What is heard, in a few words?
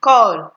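"call", Nigerian Pidgin, female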